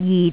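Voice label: "jit6", Cantonese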